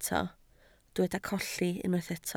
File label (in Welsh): "colli", Welsh